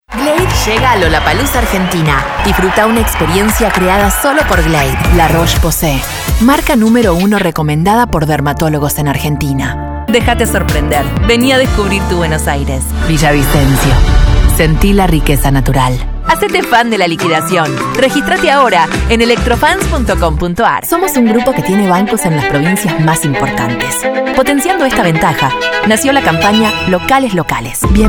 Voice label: Argentinia Spanish